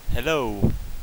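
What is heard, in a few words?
"hello", English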